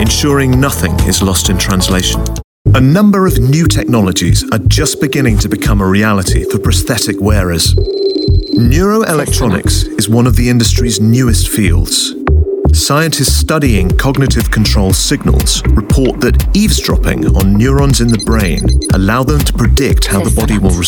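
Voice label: English British, British English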